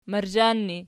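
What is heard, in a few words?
"marzhan" ergative, Archi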